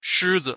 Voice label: "shizi", Mandarin